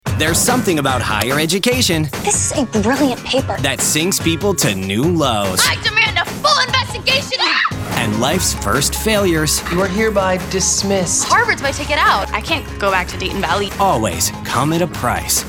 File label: Promo Demo